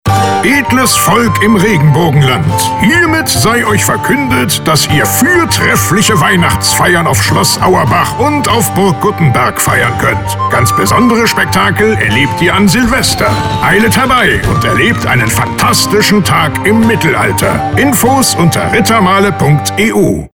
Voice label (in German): Spot Musik; deutscher Werbesprecher; Rittermahle